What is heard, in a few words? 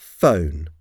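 "phone", English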